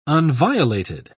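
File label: "unviolated", English, British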